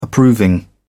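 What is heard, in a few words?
"approving", British English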